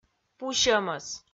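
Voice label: "пу сьӧмӧс", Komi, female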